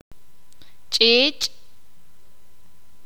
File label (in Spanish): "cuchillo", sakapulteko